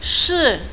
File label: "Yes", es, Adult, Male